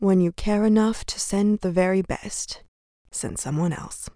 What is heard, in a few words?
Runner pissed, en